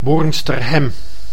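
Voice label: "Boornsterhem", Dutch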